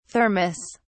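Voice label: "thermos", British English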